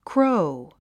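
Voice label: "crow", English; 発音 króu クロウ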